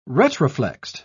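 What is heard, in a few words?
"retroflexed", British English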